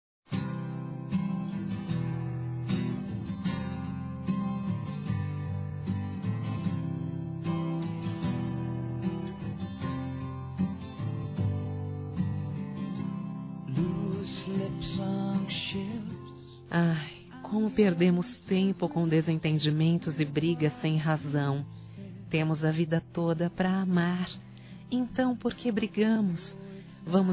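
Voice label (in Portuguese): DEIXE DE LADO AS DIFERENÇAS, Feminino; Telemensagens; Românticas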